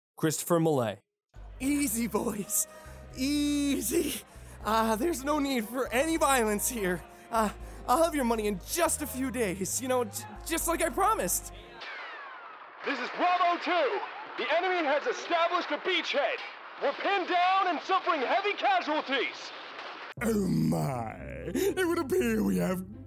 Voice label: Character